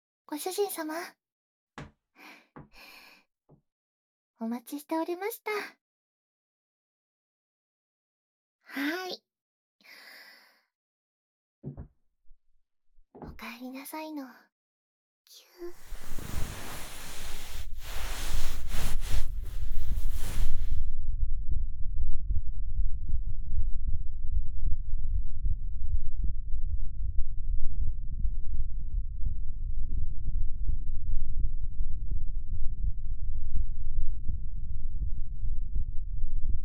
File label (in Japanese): お姉ちゃんメイドさんの甘やかし耳かき